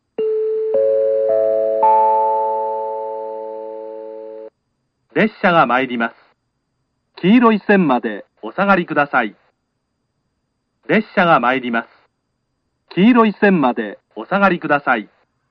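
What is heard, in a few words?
platform 3 approaching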